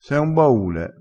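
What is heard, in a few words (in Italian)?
"baule", vocabolario dialettale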